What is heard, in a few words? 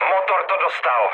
damaged engine, speech cze, mood low; War Thunder